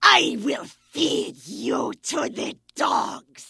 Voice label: Vrykul Female Warrior Pissed